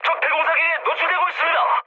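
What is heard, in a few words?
Korean damaged AA mood medium; War Thunder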